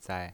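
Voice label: "zai" Mandarin Chinese pinyin voice, neutral tone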